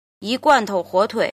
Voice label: "jin", Chinese